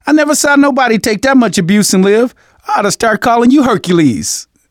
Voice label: revive friend, survivor voice manager; Left 4 Dead 2; left4dead2 dlc3

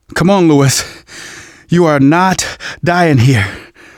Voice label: going to die light, manager, survivor, voice; Left 4 Dead 2; left4dead2 dlc3

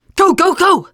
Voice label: emphatic go, teen girl; player; survivor; voice

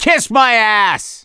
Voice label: reaction negative, voice; gambler

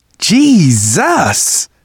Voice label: violence awe, survivor voice manager; Left 4 Dead 2; left4dead2 dlc2

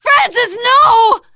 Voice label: grief biker, teengirl, voice; player; survivor

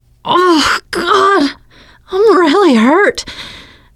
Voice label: going to die, teengirl, voice

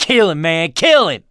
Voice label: battle cry tank, mechanic, survivor, voice

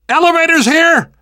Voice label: world hospital, namvet, survivor voice

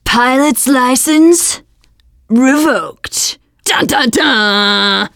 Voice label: pilot comment, teengirl, voice

